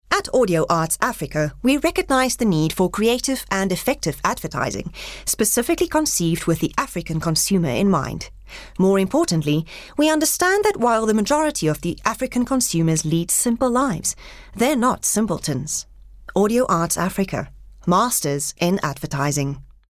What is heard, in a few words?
English Corporate